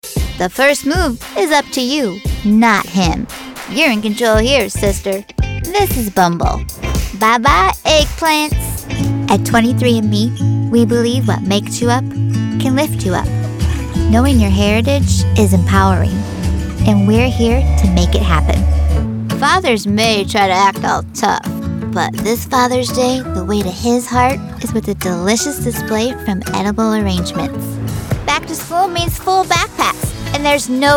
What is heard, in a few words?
Commercial